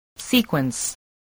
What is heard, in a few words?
"sequence", english spelling